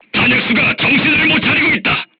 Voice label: voice message charger contused, korean, crew tanks